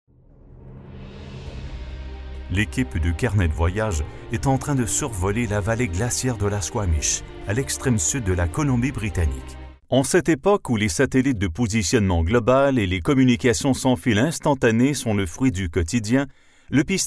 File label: french canadian male narration